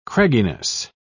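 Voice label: "cragginess", British English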